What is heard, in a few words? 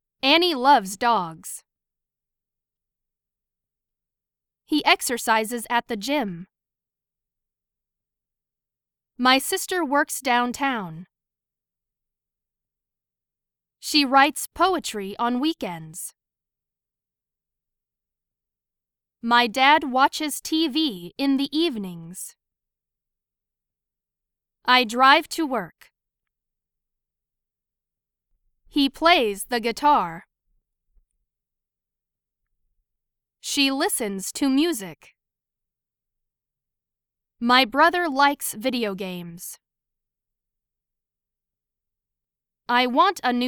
Sentences Semi Natural; chikara eigo jyuku; Lesson 4